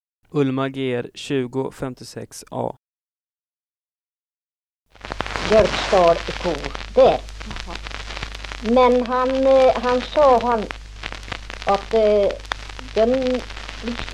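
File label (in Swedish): Järvsö Hälsingland, Svenska sagor, dialekter; Folkminnen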